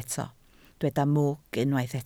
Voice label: "mwg", Welsh